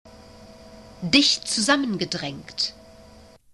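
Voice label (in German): "dicht zusammengedraengt", German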